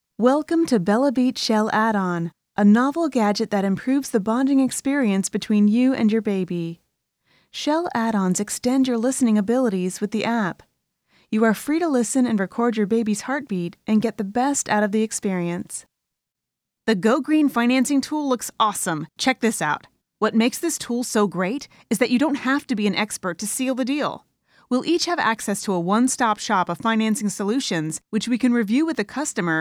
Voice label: Corporate